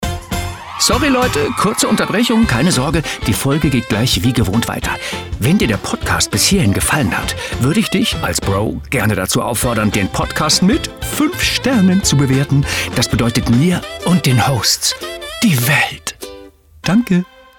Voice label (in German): Podcast Zwischenelement